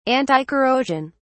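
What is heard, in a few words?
"anticorrosion", British English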